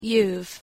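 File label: pronunciation "youve", English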